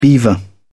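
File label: "beaver", British English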